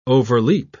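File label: "overleap", British English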